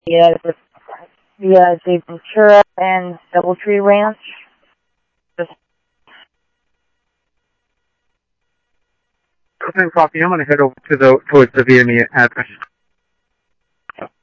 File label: Police, scanner; H-Scottsdale; ScottsdalePolice